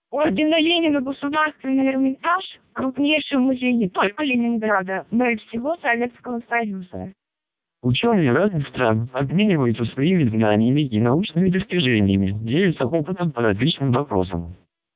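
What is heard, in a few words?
Russian short, speechsamples, MELPe 600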